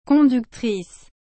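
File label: "conductrice", French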